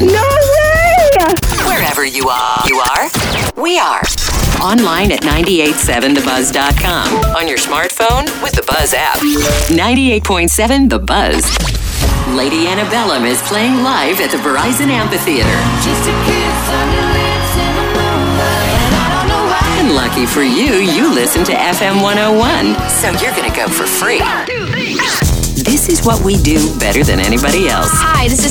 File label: Country Imaging; radio